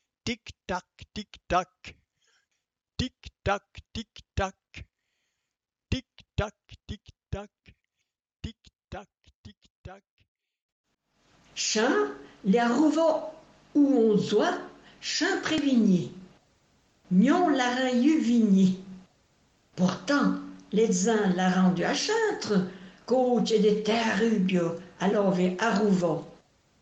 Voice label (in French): conte Patois; Tictac